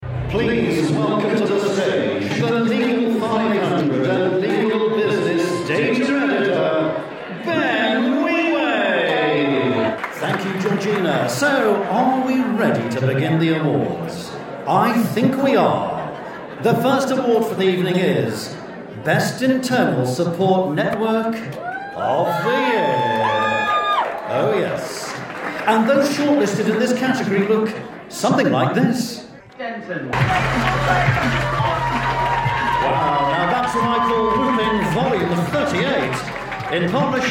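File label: voice of god live event, British Believable Voice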